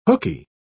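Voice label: "hookey", British English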